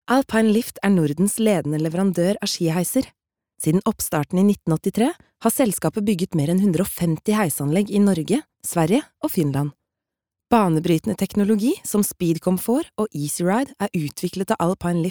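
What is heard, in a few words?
Norwegian corporate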